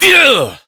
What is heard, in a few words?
Battle Adult